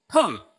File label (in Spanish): Voice Acting es M